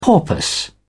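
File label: "porpoise", British English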